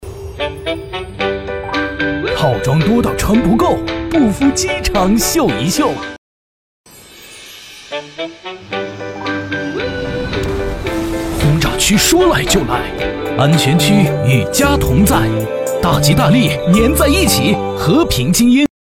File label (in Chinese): 男 广告; 和平精英VO部分